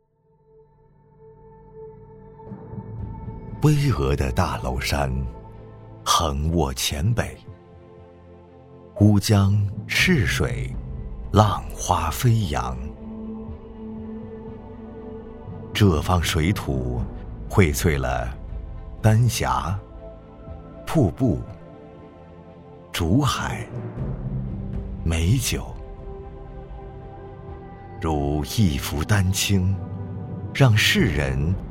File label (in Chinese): 宣传片 深情 内敛; 贵州酒店集团